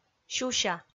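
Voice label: "сюся", Komi, female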